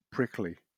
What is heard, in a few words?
"prickly", en